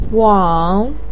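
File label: "wang3", Mandarin Chinese, pinyin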